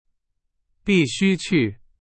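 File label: Chinese, example sentence; hsk2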